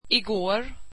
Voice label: swedish yesterday